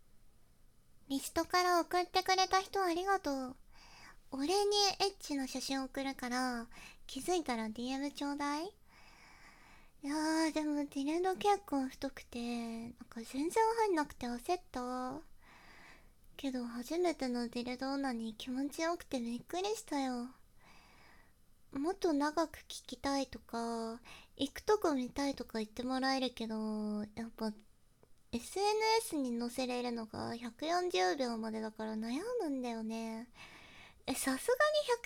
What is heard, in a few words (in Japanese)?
ボイス, ASMR